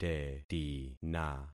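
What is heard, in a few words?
"tetina", concatenated syllables; Affixing experiment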